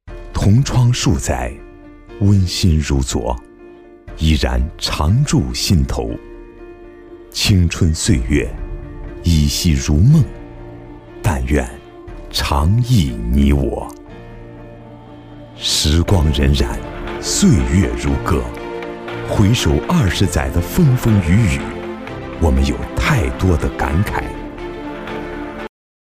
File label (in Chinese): 男, 情感, 同窗